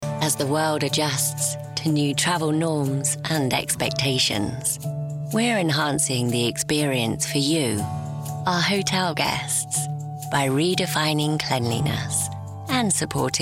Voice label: Voice Actor; BigMouth Voices